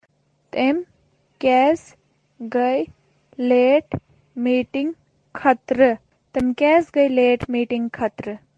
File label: Kashmiri; Why are they late for the meeting?; Tim kyaes gey late meeting khet